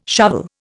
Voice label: "shovel", Indian